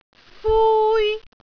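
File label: "fui1", Cantonese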